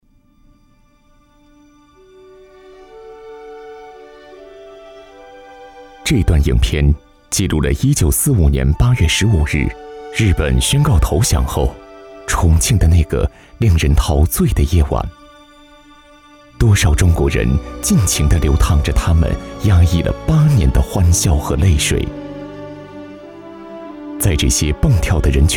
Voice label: 男 纪录片 温情讲述

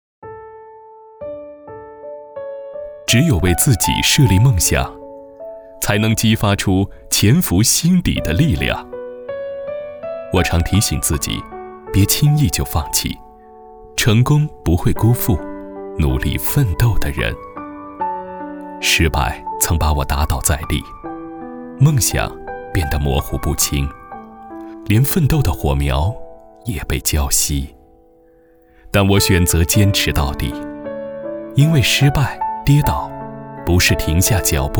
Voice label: 男 品质广告 自然讲述 年轻大气; 一起挑战世界

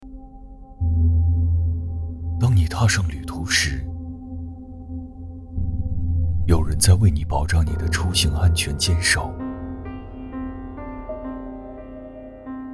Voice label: 男 走心旁白; 消防

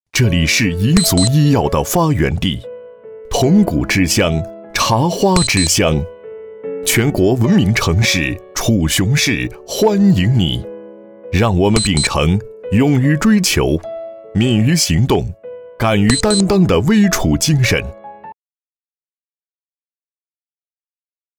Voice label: 男, 广告, 彩铃

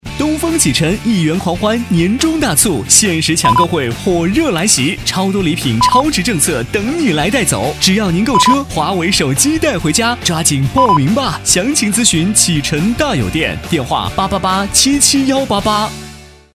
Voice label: 男 广告 活力动感; 东风启辰4S店

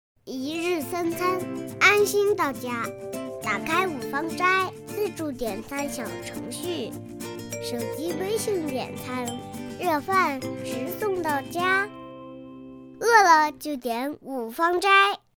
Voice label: 女 真童6岁 广告; 五芳斋

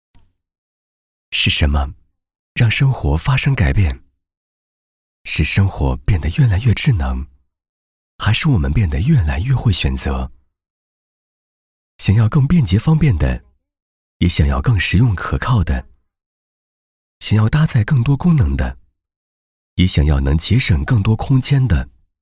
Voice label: 男 广告 优雅 科技; 好太太

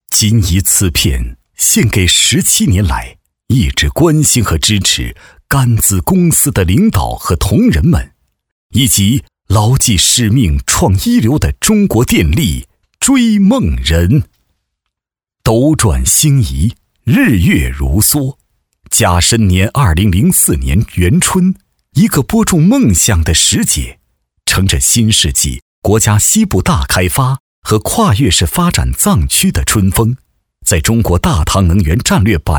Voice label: 男 企业宣传片

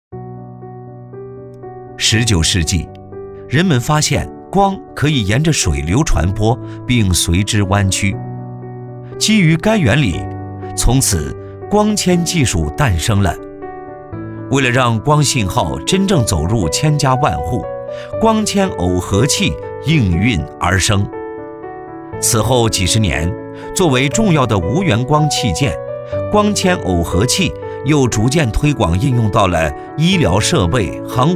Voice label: 男, 汇报; 光纤耦合器

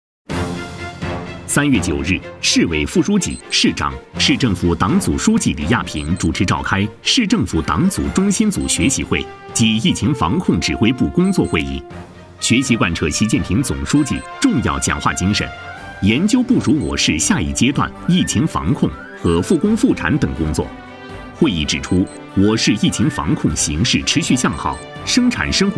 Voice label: 男 新闻类 疫情防控

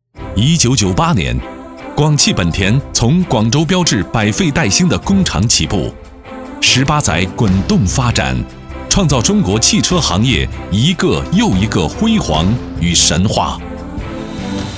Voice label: 男 广告; 广汽成品

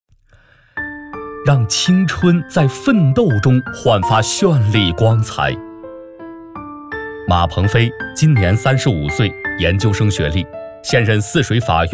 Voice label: 男 人物介绍; 法院庭长

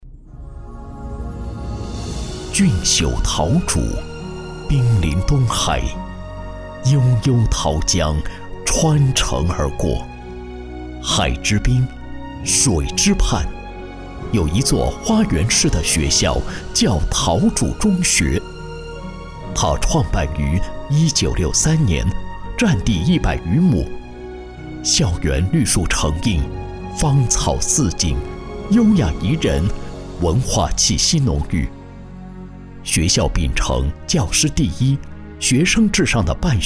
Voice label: 男 学校专题 深情款款

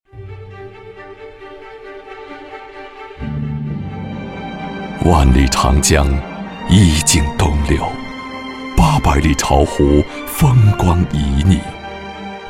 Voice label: 男, 专题; 走进巢湖

